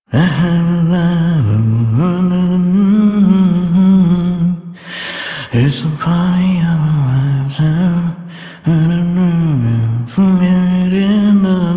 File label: Citizen Male, Mall; Warframe; 1999